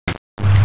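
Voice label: "zu2qiu2" Mandarin Chinese, female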